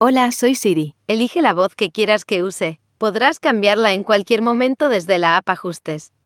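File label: Spanish, Spain; iOS Sounds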